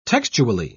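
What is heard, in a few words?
"textually", English, British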